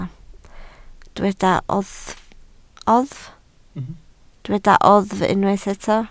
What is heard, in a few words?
"oddf", Welsh